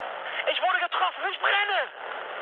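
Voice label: German on fire mood med, speech; War Thunder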